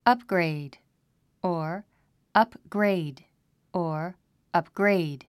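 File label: "upgrade", English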